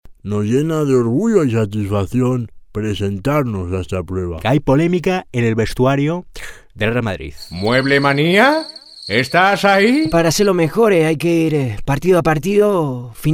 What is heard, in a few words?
imitador locutor voiceover; imitador de diversos personajes